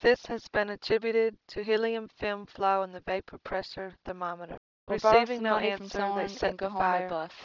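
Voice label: mixture 2 speakers female harmonic; Timit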